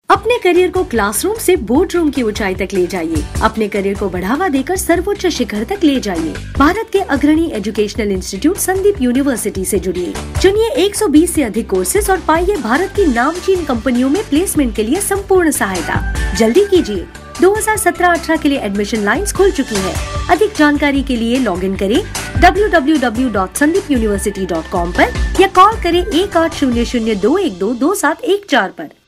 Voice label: Hindi IVR